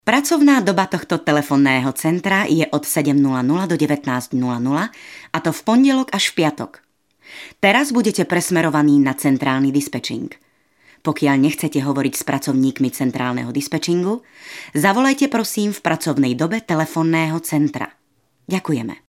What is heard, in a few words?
Female Slovak ivr